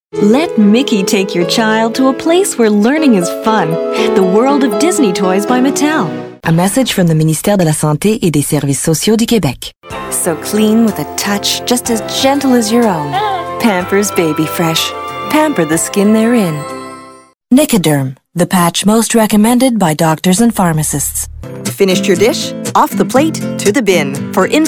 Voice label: commercials